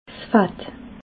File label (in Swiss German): "fatt", alsace